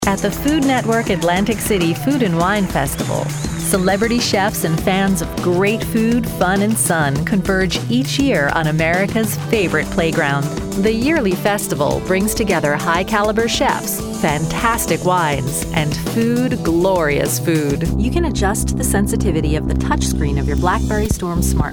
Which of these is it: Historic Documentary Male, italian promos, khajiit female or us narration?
us narration